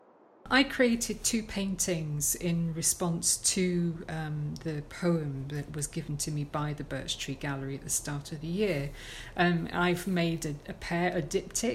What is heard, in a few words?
audio voiceover